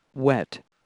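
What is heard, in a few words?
"wet", English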